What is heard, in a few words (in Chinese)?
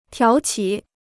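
"挑起" Chinese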